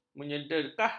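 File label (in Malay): "menyedekah", Malay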